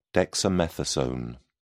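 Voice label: "dexamethasone", British English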